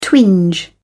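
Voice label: "twinge", English, British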